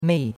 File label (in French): "mei4", chinois; Cours-de-Chinois